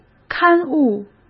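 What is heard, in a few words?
"刊物", Mandarin; chinese-flashcards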